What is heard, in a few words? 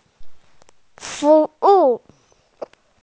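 "service", Mandarin, hearing-impaired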